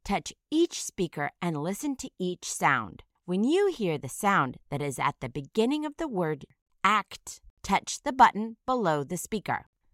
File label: "act", initial phoneme isolation